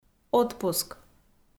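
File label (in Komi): "отпуск", Komi, female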